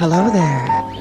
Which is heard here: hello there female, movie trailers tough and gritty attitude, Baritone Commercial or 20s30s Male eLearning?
hello there female